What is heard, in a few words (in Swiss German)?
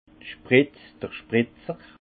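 "spritz", Haut Rhin; vin; pulvérisateur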